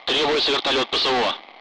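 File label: Speech Sound Russian Common Allied Flight; Request CSAR; DCS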